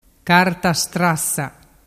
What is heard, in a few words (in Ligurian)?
"carta strassa", dialettu